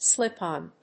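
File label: "slip-on", English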